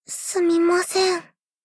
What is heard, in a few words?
sad sorry